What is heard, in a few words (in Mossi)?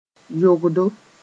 "yogdo", moore